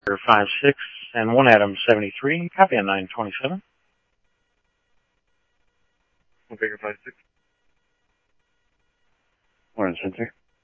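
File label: Simulcast police department, scanner audio trunk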